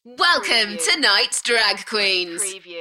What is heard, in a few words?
UK female, Welcome tonights drag queens, Enthusiastic; beanstalk audio